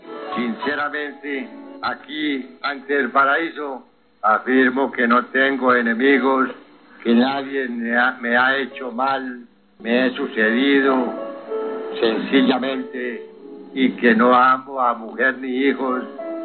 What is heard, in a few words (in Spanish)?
voz sinceramente